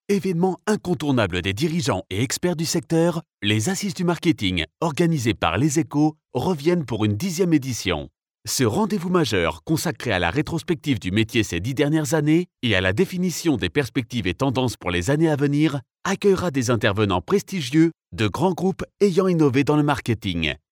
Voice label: male french voice over sales, france